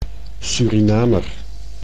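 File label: "Surinamer", Dutch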